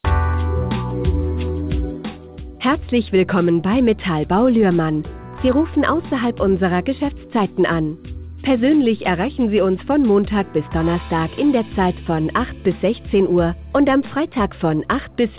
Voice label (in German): Ansagen AB NACHT; Metallbau Luehrmann